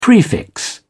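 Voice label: "prefix", English, British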